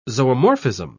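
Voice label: "zoomorphism", British English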